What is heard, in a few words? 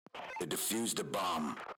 bomb diffused, radio